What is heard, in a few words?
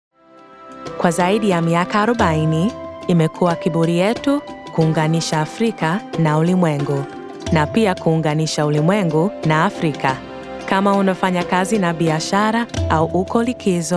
Commercial Swahili